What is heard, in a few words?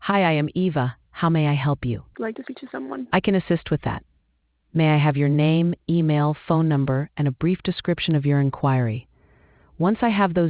conversation